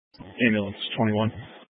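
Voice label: Fire Dispatch, Scanner audio recording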